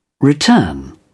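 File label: "return", British English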